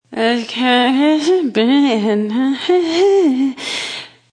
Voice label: Female Drunk Babble, Drunk female talking; Voice; Talking Sound Effects